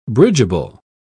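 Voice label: "bridgeable", English, British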